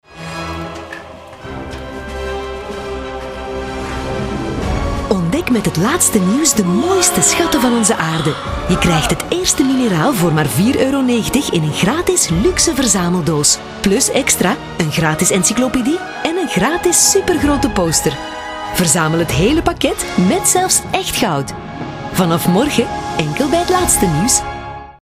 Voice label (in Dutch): dynamisch; Het Laatste Nieuws